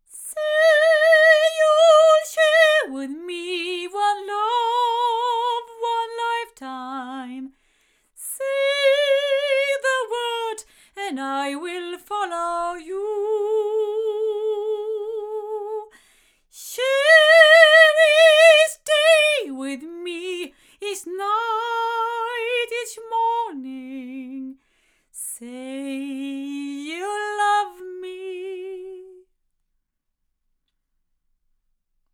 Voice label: ADK A51ST omni female, microphones